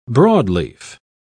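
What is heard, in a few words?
"broadleaf", British English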